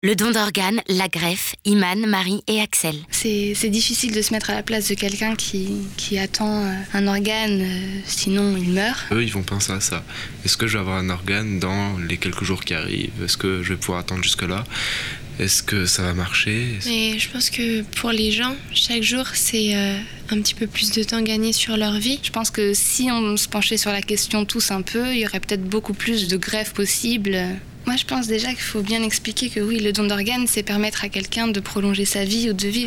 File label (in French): spot radio